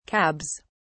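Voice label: "cabs", British English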